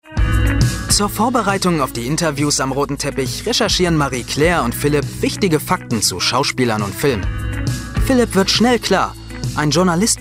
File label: voice over; yolo rtl